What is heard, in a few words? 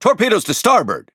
En Speech Alarms Torpedo Right; World Of Warships